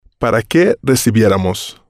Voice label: "para Que Recibieramos", spanish

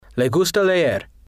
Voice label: "le gusta leer", spanish

